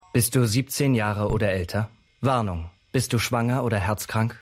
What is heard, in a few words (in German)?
Evil Off Sprecher